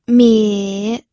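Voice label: "mie", third tone, pinyin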